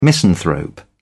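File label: "misanthrope", British English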